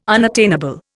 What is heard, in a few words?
"unattainable", Indian